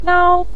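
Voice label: "nao", Mandarin Chinese neutral tone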